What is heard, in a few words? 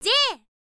"j", info girl voice